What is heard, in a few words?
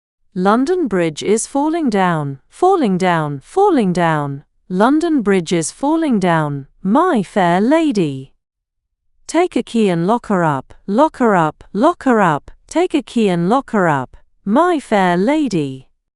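female British, classic rhymes; London Bridge is Falling Down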